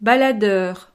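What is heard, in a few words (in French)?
"baladeur", French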